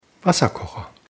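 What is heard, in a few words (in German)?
"wasserkocher", German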